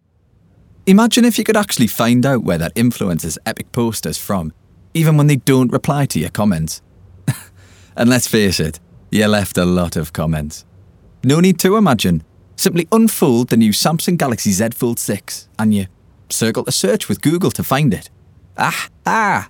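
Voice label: Ad, Newcastle; Samsung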